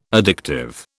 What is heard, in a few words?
"addictive", British